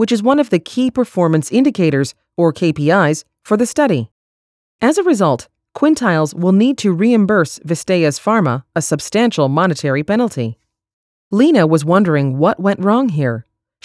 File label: Hosting Customer Audit Narration; NIIT; PL Curriculum